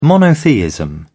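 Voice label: "monotheism", British English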